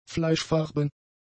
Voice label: "fleischfarben", German; adjectives